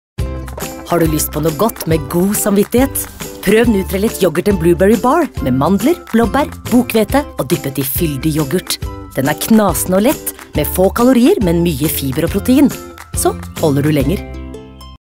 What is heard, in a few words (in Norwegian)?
no reklame; nutrilett